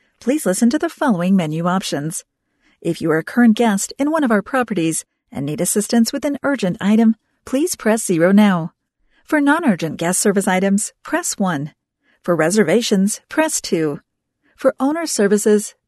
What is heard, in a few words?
Upbeat IVR Menu